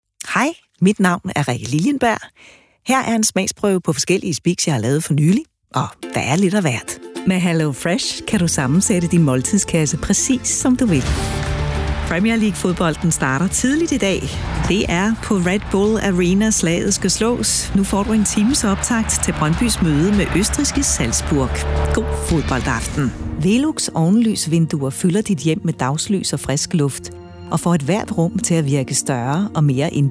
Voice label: female danish compilation